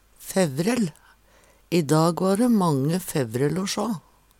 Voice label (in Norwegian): fevrell, Numedalsmål; I dag va dæ mange fevrell o sjå.